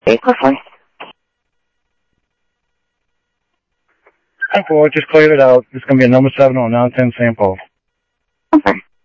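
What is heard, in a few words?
Sheriff East County, scanner audio trunk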